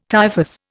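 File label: "typhus", Indian English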